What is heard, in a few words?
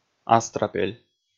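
"астрапель", Komi, male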